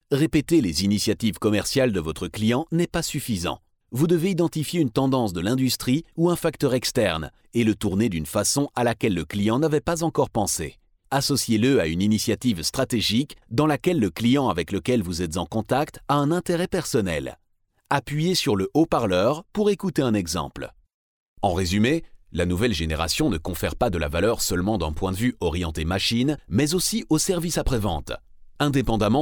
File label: E-Learning